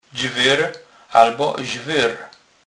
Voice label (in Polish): "dzwer", kurpie; slownik